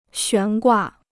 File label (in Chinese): "悬挂", Chinese